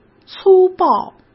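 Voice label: "粗暴", Mandarin Chinese; chinese flashcards; hsk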